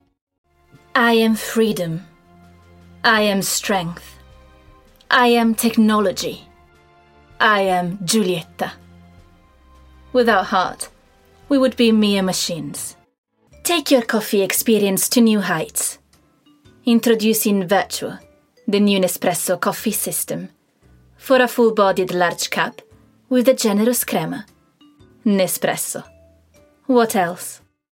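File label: Italian, Accented English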